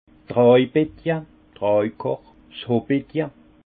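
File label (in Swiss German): "traj bettje", Haut Rhin; hotte de vendanges; vin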